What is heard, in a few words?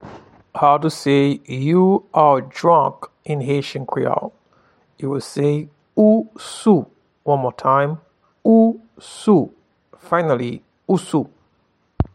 You are drunk in Haitian Creole, Ou sou